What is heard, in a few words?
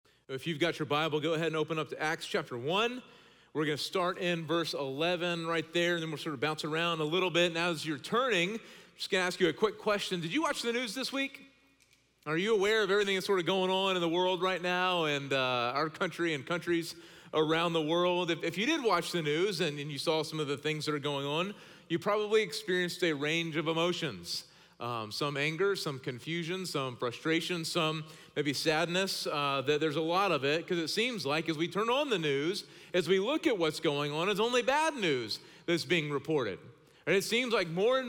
Sermon English